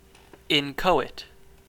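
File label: "inchoate", US English; pronunciations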